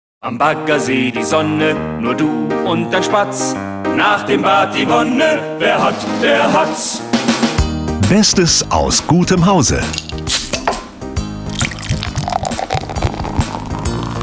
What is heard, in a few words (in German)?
Werbung; hatz